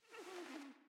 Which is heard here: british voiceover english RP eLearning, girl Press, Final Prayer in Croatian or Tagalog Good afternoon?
girl Press